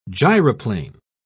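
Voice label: "gyroplane", British English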